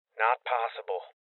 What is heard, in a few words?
Voices Refuse Male Young; Rebel Cops